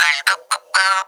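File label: effects talk, Funky Vocals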